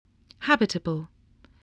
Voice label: "habitable", spelling